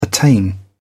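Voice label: "attain", English, British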